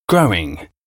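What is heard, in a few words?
"growing", English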